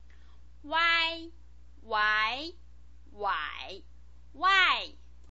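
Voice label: "uai", Mandarin Chinese pinyin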